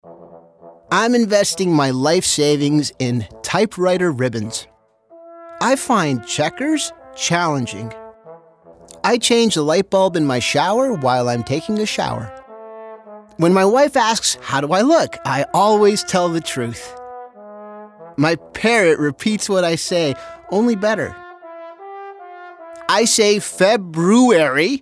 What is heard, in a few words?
RAD eng; Brain Power; Typewriter Ribbons